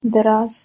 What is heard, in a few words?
"deraz", Persian